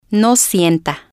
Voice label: no sienta, Spanish

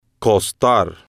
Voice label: "costar", spanish

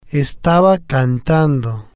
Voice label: "estaba cantando ella", Spanish

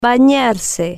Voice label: "banarse", Spanish

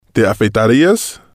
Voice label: te afeitarías, Spanish

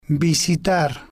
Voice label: "visitar", spanish

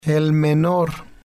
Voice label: "el menor", Spanish

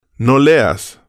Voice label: no leas, Spanish

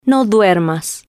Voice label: no duermas, Spanish

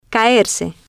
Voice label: "caerse", spanish

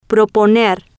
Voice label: "proponer" Spanish

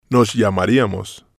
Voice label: nos llamaríamos, Spanish

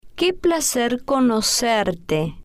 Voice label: "que placer conocerte", Spanish

